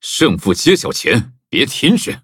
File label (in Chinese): levelup, zh-hant; cookie run